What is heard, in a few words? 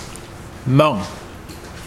head, Mokilese